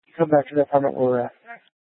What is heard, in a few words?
Sheriff, scanner; Shrf Mnt (Parks)